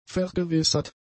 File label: "vergewissert", German; adjectives